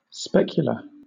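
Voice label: "specular", British English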